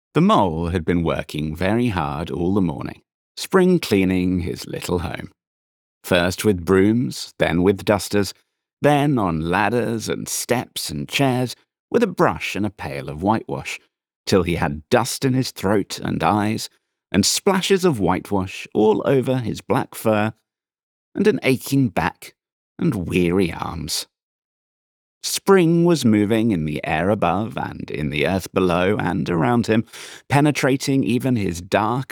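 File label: Classic Literature Sample, British Male Audiobook Narrator, British RP voice; Wind in the Willows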